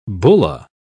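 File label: "bulla", British English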